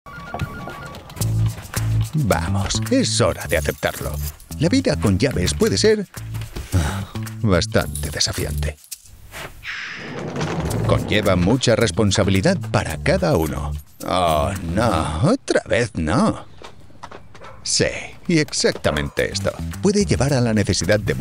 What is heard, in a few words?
Radio / TV Imaging, deep, trustworthy, confident Male Voice; Publicidad; Ecliq. Youtube Video